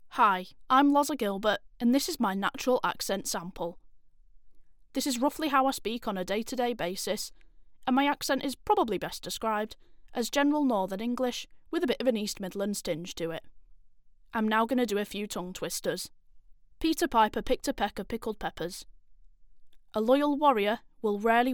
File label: Natural Accent Sample